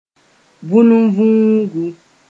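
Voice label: "vũnunvũugu", Mossi; mud wasp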